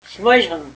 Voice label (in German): "schmolchrn", Erzgebirgisch